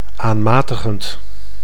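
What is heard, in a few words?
"aanmatigend", Dutch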